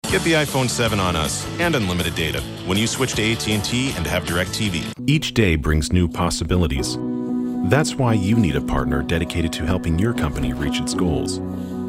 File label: Minute Commercial